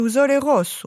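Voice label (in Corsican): "sole rossu", Corsican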